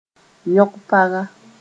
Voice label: "yõg paga", moore

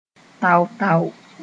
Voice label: "tao-tao", moore

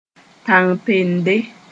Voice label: "tãng pende", moore

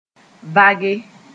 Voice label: "vage", moore

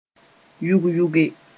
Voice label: "yʋg-yʋge", moore

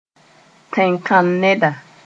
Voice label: "tẽnkãn-neda", Moore

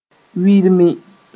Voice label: "wirmi", Moore

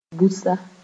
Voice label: "busa", moore

